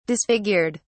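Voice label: "disfigured", British English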